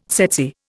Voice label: "tsetse", Indian